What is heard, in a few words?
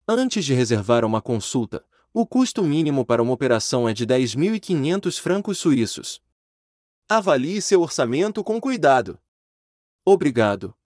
Vocal pop up portuguese